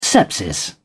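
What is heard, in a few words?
"sepsis", British English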